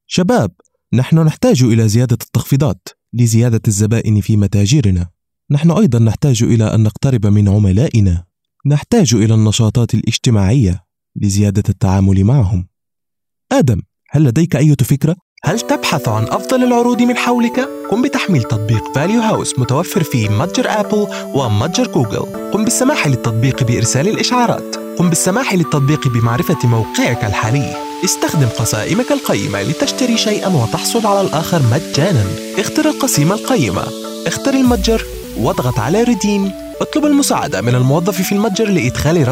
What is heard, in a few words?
Arabic